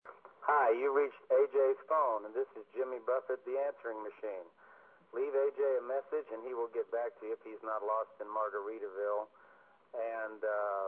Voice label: voicemail